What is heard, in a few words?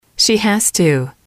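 she has to, English, female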